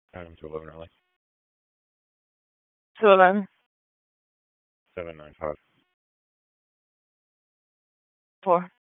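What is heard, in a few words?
Police District Dispatch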